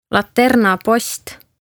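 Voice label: "Laternapost" Estonian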